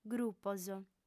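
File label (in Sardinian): "grupos", female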